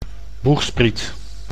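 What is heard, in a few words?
"boegspriet", Dutch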